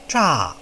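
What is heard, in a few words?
"zha4", Chinese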